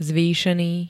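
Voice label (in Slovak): "zvýšený", Slovak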